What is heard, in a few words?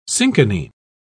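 "cinchonine", British English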